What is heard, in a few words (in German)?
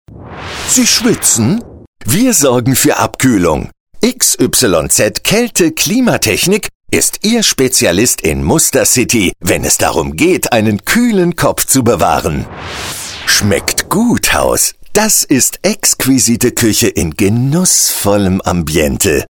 schmunzelnd genussvoll